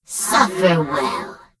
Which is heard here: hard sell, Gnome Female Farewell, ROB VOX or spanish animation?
Gnome Female Farewell